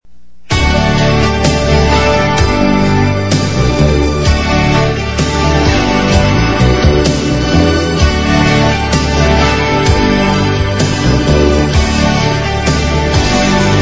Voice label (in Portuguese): Mensagem Aniversario De Amigo F